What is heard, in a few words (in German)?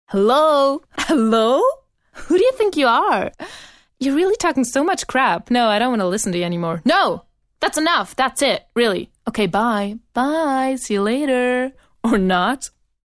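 US English, Schauspiel Energisch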